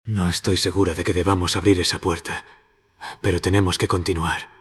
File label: expressive whisper